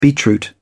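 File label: "beetroot", en, uk British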